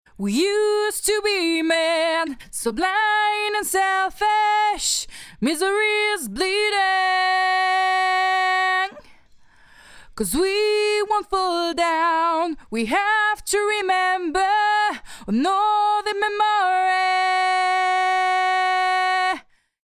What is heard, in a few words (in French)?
vocals sans effet; stoneq 4k